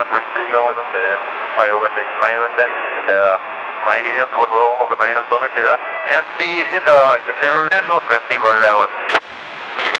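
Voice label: 40s style radio with static, announcer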